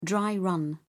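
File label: "dry-run", British English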